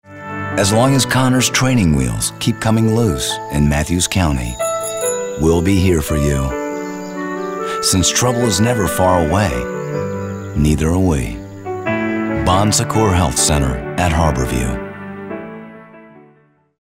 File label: caring